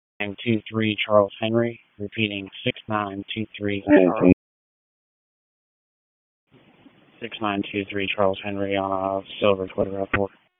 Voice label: Police Disp, p25; Wake Forest